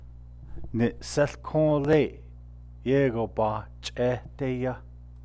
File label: I am very tired because I went swim, navajo particle; léi’ a certain, some, a, an